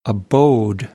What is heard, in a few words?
"abode", American English; oʊ - əʊ